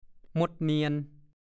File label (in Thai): "มดเนียน", ภาษาโคราช; หมดเกลี้ยง